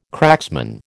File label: "cracksman", American